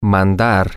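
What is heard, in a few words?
to Send, Spanish, male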